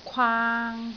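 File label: "kwaang3", Cantonese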